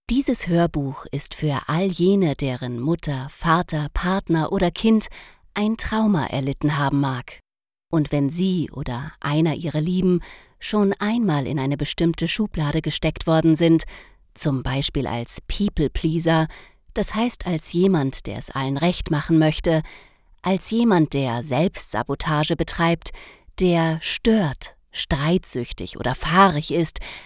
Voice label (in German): Hoerprobe Anmerkung